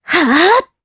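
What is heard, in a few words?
Vox Casting Japanese; Epis; kingsraid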